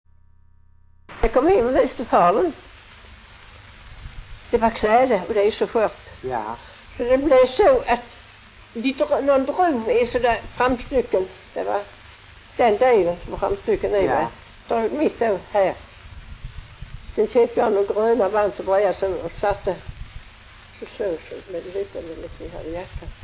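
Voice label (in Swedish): Lunds stad Skåne, dialekter; Folkminnen; Svenska sagor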